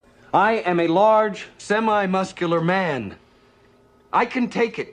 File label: Large Man